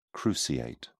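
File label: "cruciate", British English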